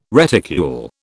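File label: "reticule", British English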